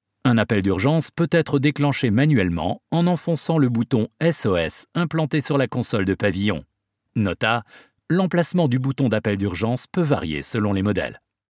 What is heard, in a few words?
elearning; hyundai